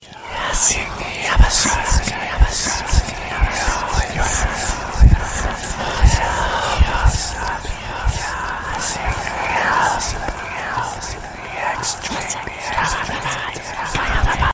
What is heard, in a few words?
whispers